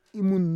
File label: "imun", Roon